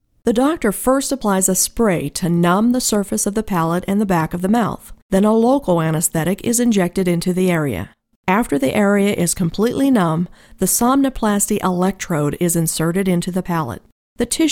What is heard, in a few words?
Medical Narration